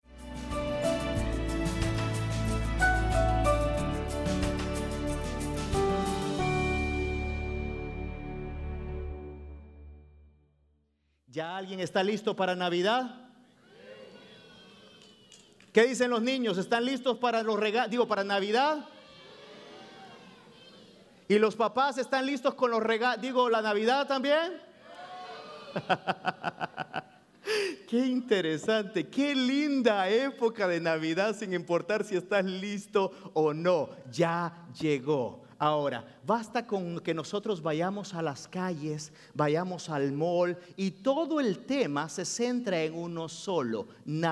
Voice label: Spanish Sermon

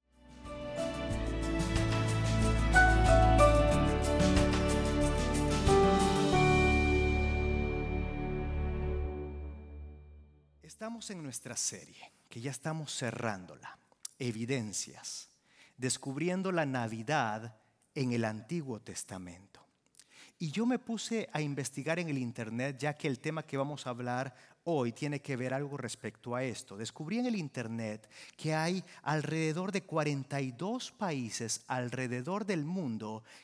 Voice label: SERMON SPA

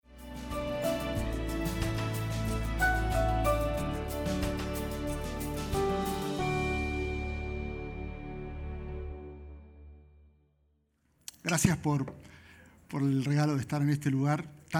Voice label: SPAN SERMON

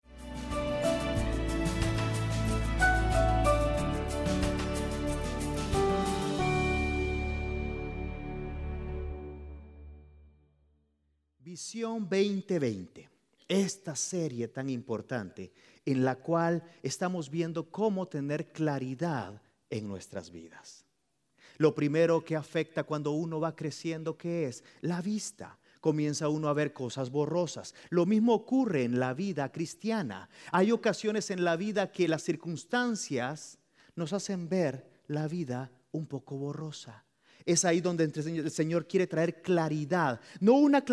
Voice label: Spanish Sermon

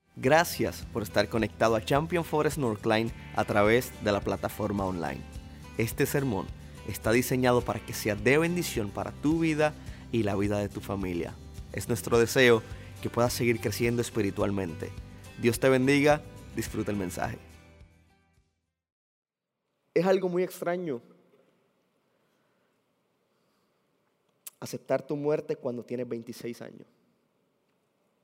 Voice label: Sermon Spanish

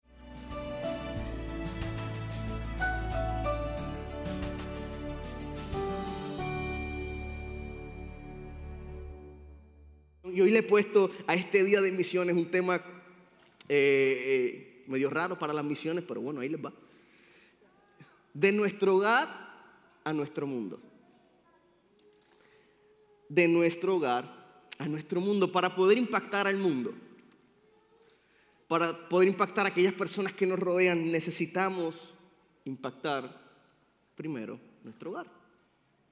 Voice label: Sermon Spanish

